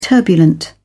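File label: "turbulent", British English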